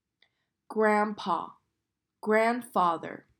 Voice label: Grandpa grandfather